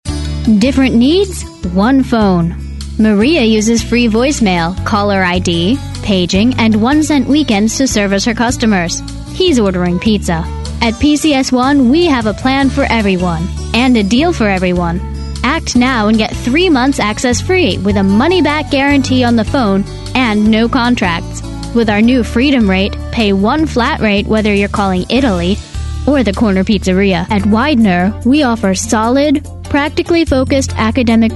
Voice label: 20s Female Commercial